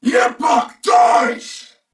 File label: Attack, Corpus Venus Male; Warframe; CorpusVenusMaleA